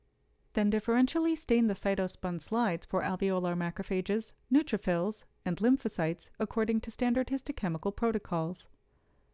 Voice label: Voiceover